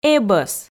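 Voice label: "эбӧс", Komi, female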